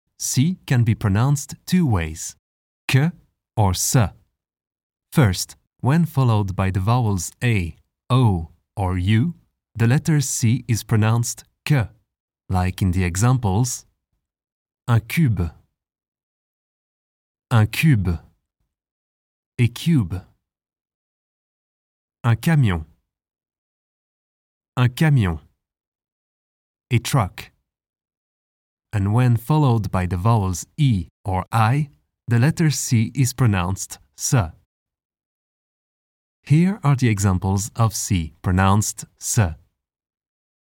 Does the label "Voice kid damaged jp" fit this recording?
No